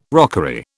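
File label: "rockery", British English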